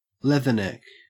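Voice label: "leatherneck", Australian English; pronunciations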